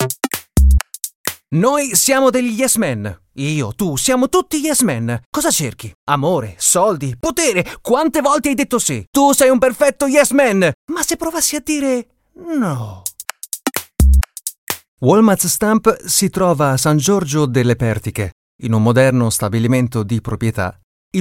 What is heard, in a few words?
italian commercial